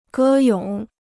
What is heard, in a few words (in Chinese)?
"歌咏", Chinese